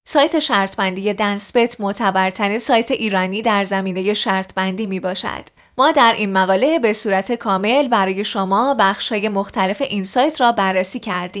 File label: Podcast; Dancebet